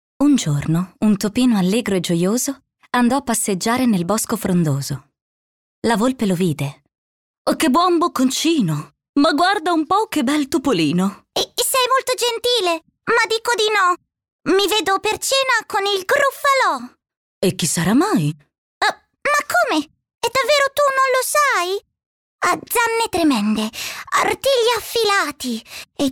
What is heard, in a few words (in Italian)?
audiolibri bambini